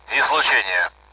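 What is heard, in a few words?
Speech, Russian, AWACS; radar on; DCS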